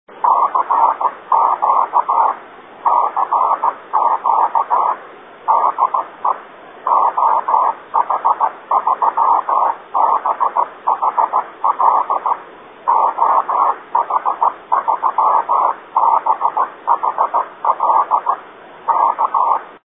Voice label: 6m, vhf